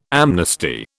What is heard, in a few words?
"amnesty", British